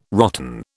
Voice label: "rotten", British